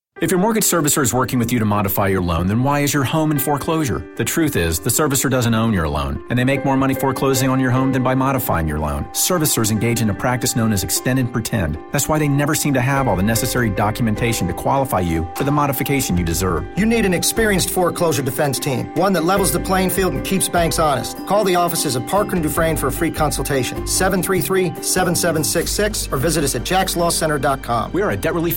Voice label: radio ad